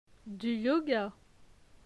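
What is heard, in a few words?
"Du yoga", French; phonetique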